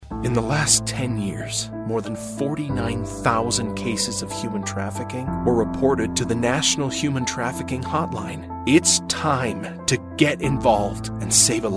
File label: american accent, english male voices